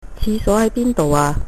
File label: toilet, cantonese